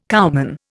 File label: "cowman", Indian